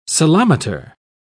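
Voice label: "ceilometer", British English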